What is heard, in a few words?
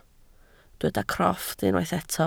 "crofft", Welsh